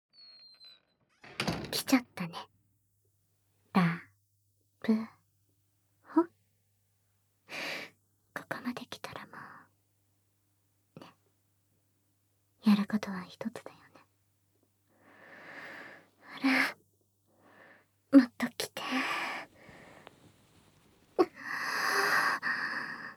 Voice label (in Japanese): 吐息を漏らしながら囁き＆キス